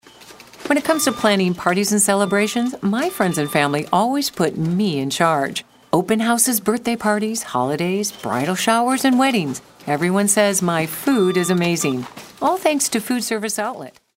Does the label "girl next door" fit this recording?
Yes